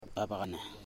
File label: wing, Ipili; Ambua